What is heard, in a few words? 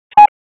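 Dispatch 1, P25; WSO DISP 1; Wake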